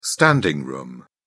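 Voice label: "standing room", British English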